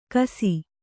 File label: "ಘೈಸ್ಸ", Kannada